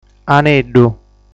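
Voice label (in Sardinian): "Aneddu", Sardinian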